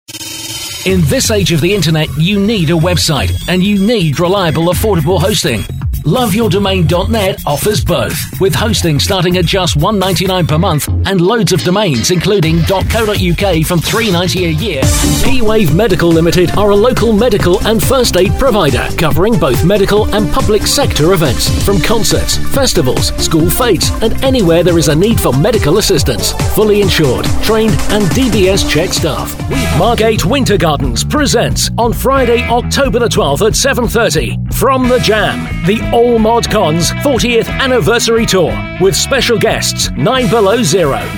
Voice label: Radio Commercials